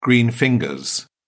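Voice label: "green fingers", British English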